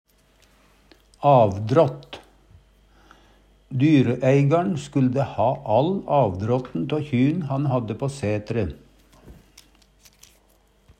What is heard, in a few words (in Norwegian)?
"avdrått", Numedalsmål